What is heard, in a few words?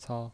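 "cao", Mandarin Chinese, neutral tone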